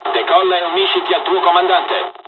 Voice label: takeoff, dialogs italian; midway us; War Thunder

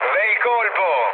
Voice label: bomb success, mood low, speech it; War Thunder

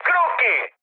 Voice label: enemy detected, mood med, speech it; War Thunder

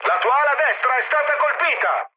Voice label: right wing, mood med, speech it; War Thunder

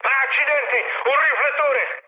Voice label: searchlite, mood high, speech it; War Thunder

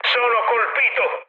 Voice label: aircraft destroyed, mood med, speech it; War Thunder

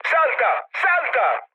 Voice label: bailout, mood med, speech it; War Thunder

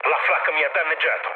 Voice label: damaged aa, mood low, speech it; War Thunder

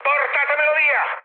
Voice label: aircraft damaged, mood high, speech it

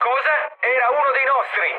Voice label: player killed ally, mood low, speech it

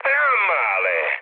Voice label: trick, mood med, speech it; War Thunder

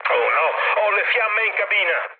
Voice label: on fire, mood low, speech it; War Thunder

